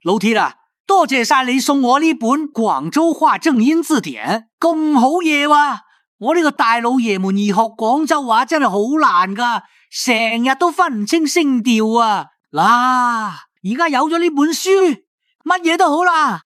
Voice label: Mandarin Cantonese; MiniMax Speech Tech Report